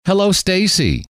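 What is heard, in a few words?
"hello stacie", male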